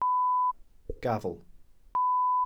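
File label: "gafl", Welsh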